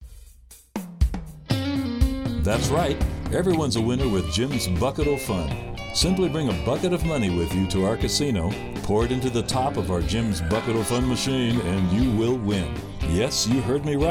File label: voiceover; Voice Over Demos